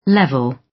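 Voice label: "level", British; Design and innovation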